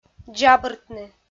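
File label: "дзабыртны", Komi, female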